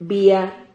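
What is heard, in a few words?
"bia", Spanish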